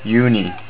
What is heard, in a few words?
June, estonian